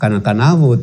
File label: "kanakanavu"